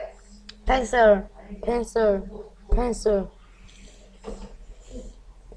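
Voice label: "pencil", spoken word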